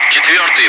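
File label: Russian loud; Wingman; DCS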